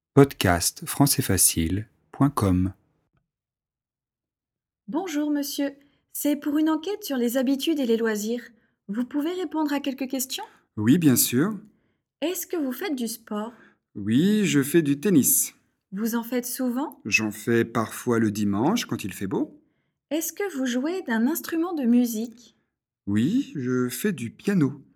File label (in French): dialogue adverbes frequence